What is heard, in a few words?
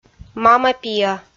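"мама-пиа", Komi, female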